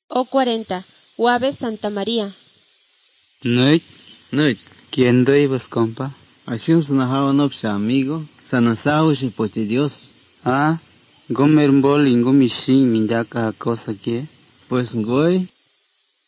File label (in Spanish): spanish mexico